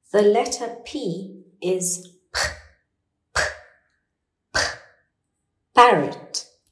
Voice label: p sound